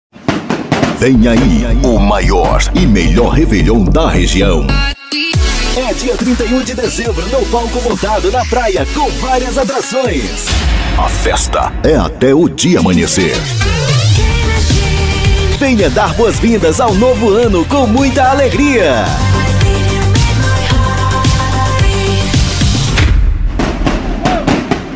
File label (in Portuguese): banco de vozes banco de voz gravacao para moto som propaganda moto som publicidade; carro de som